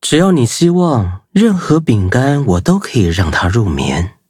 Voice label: levelup zh-hant; cookierun

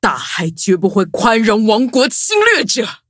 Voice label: upgrade, zh-hant; Cookie Run

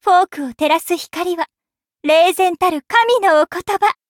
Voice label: levelup ja; cookie run

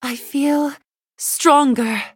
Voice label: levelup, en; cookierunwiki

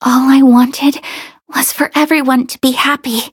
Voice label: touch, en

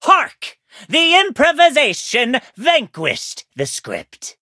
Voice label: victory, en; cookierunwiki

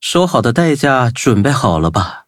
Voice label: victory, zh-hant

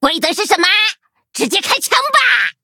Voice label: battle, zh-hant; Cookie Run

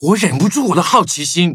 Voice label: levelup zh-hant; cookie run

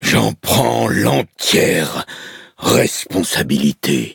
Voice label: defeat, fr; cookie run

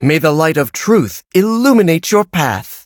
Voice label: loading, en; cookie run

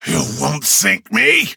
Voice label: hit, en; cookie run

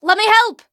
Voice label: battle, en; Cookie Run

